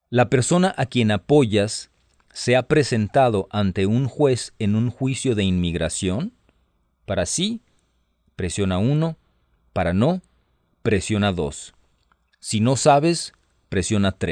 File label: Spanish, hotline